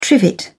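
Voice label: "trivet", British English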